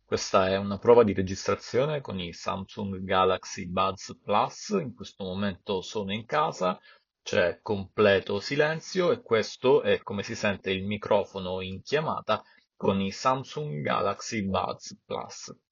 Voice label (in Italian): Prova microfono Samsung Galaxy Buds Plus